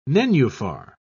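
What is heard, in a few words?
"nenuphar", British English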